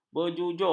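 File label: "berjujuk", Malay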